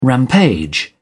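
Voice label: "rampage", British English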